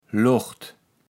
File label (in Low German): "Locht", kaevels platt